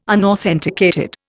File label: "unauthenticated", Indian